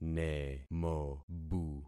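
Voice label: "nemobu", concatenated syllables; Affixing experiment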